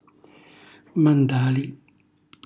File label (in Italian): "mandali", Calabrese; cucina calabrese; Glossario